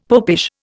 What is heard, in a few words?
"popish", Indian; Dictionary